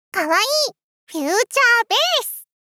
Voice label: かわいい！ふゅーちゃーべーす 少女 中音域

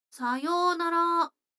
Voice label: 女の子台詞

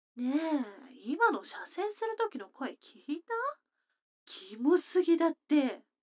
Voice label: 女の子 台詞, ASMR

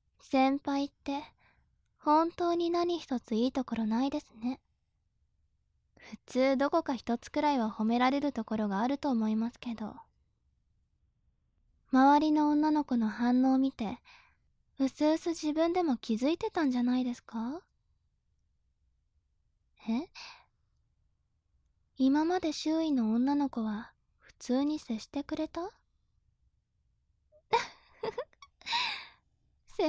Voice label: 調教中音声

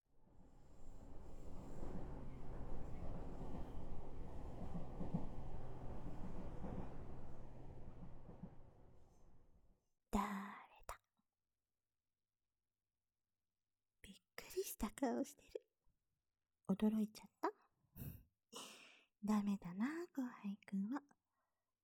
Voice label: 電車の中で ささやきながら; 電車の中で汗だく超密着♪; 逆チカン♪

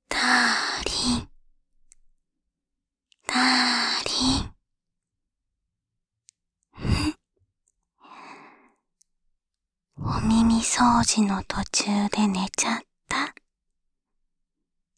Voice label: 左 声のみ, ASMR

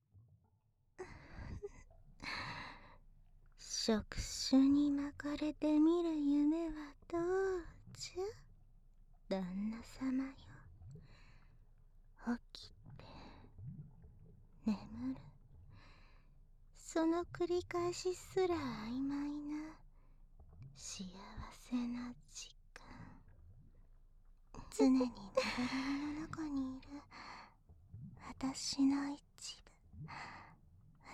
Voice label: 両耳ささやき耳舐め; 渦姫と螺姫; うつろ舟宿渦螺屋

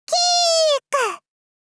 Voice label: キ～～～ク！ 幼女×高音域

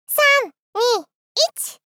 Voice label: さん、にっ、いち！幼女×萌え系×高音域

